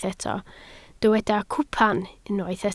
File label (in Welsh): "cwpan", Welsh